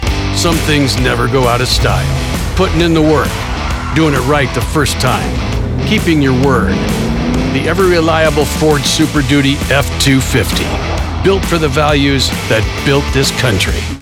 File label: truck commercial voice actor gritty automotive vo; Ford F-250